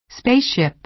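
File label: "spaceship", American English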